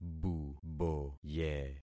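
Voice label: "buboye", concatenated syllables; Affixing experiment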